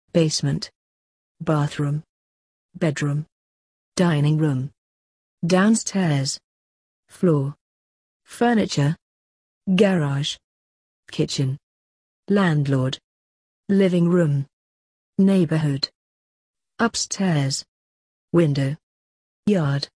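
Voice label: British; apartments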